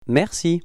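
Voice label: "merci", French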